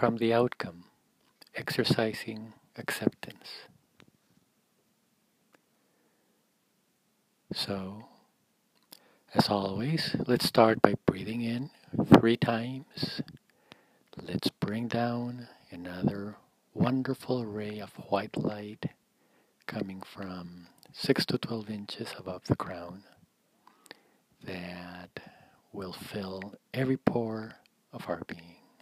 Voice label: Guided Meditation The Rain